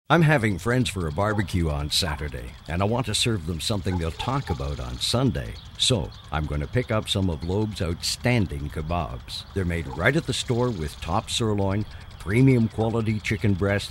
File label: Red Grill Spot